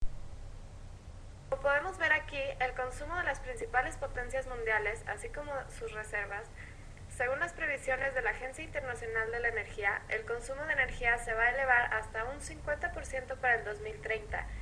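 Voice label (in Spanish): narración en español del mix energético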